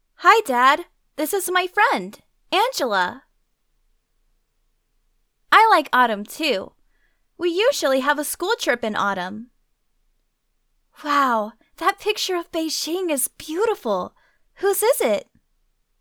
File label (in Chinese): 英式女童声